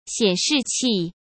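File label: "显示器", Mandarin Chinese; hsk; chinese-flashcards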